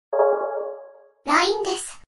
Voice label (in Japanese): 通知音と女性ロボット声で「LINEです」